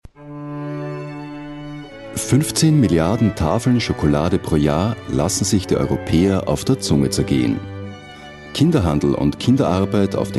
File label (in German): Doku; Elfenbeinkueste